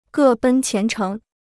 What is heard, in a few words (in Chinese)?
"各奔前程", Chinese